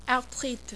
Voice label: "arthrite", French